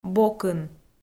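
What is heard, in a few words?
"бокын", Komi-Zyrian, female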